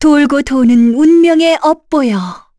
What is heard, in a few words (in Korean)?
Vox Skill, kr; Yuria; kings raid